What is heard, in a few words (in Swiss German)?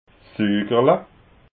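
"sugerla", Alsatian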